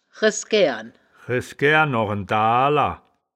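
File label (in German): "resgaeren", wittlicher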